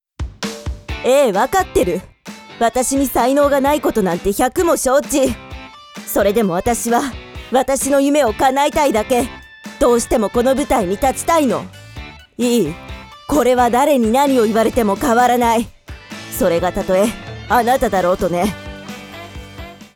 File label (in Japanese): ボイスオーバー